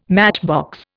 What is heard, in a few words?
"matchbox", Indian